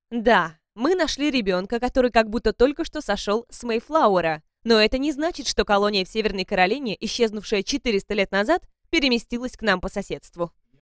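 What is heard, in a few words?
Russian Lithuanian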